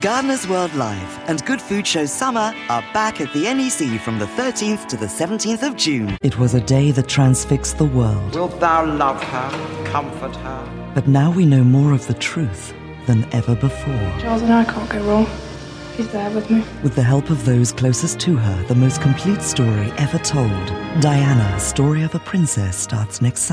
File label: TV Promo